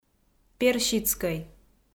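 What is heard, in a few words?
"персидскӧй", Komi, female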